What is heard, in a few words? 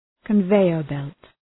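"conveyor belt", English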